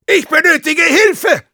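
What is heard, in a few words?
Spy help me, German; "Ich benötige Hilfe!"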